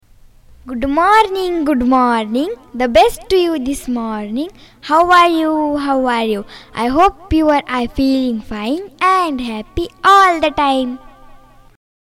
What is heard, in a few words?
Voice ENGLISH Poem